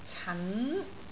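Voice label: "can2", Cantonese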